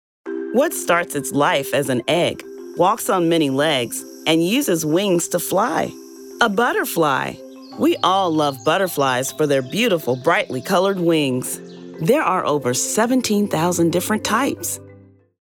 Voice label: Commercial Kids Science